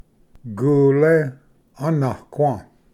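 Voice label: "gule anaqua", Cherokee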